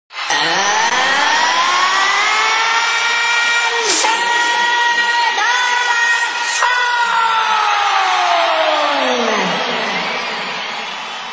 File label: Answer The Phone Cute